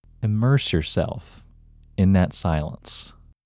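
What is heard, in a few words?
Male, India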